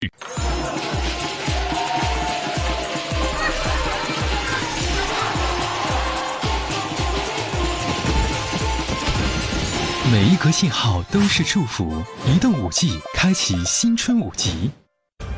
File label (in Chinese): 男 广告; 中国移动